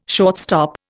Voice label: "shortstop", Indian